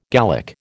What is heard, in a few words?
"Gallic", American